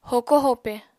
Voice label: "hoko-hope", apatani